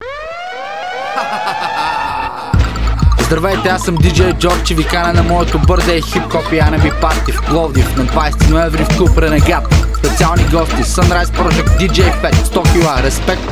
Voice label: spot birthday